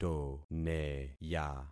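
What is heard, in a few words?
"toneya", concatenated syllables; Affixing experiment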